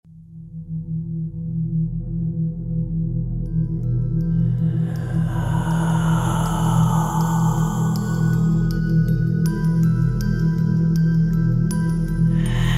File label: PTBR M Character, brazilian portuguese